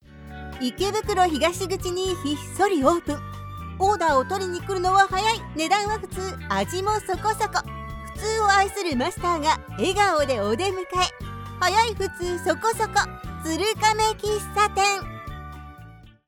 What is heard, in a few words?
japanese commercial